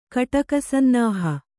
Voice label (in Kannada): "ಕಟಕಸನ್ನಾಹ", Kannada